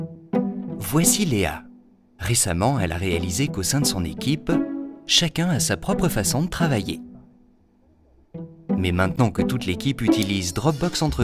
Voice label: CORPORATE WEB; DropBox for Business